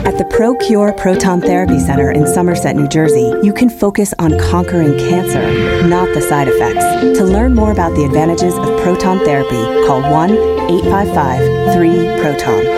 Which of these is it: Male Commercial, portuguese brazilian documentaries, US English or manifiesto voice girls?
US English